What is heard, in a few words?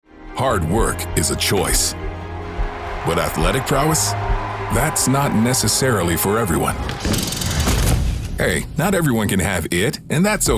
commercial